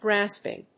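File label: "grasping", English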